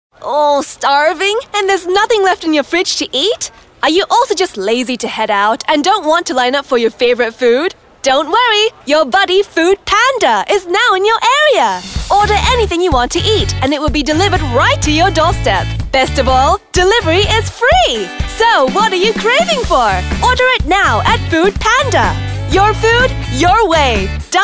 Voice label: Young Energetic